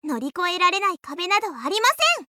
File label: Voice victory